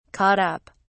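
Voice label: "caught up", British English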